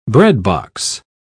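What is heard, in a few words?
"breadbox", British English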